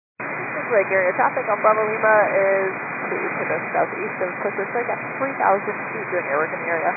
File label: 122.925 MHz; scanner radio